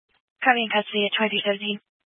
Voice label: Police Department Dispatch, scanner; Grand Junction